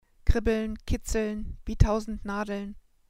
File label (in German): "kribbeln", german